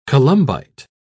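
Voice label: "columbite", British English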